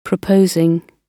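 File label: "proposing", British English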